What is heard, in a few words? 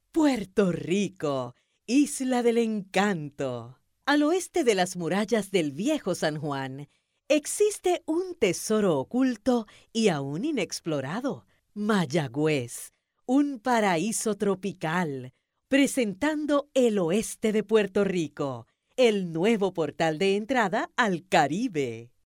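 Spanish Latin America female voice over, upbeat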